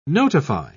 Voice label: "notify", pronounce